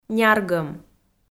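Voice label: "няргӧм", Komi, female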